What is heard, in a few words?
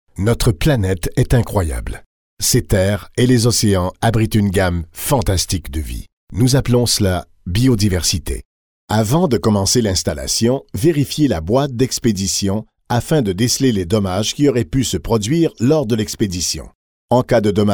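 French Canada, male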